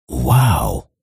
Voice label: shoutout en; jewels